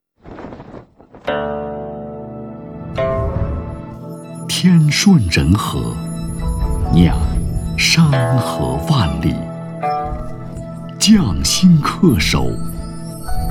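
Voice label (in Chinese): 广告（大气、豪迈）; 顺和酒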